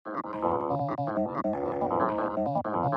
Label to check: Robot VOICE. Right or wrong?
Right